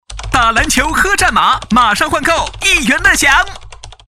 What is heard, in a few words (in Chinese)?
病毒广告; 战马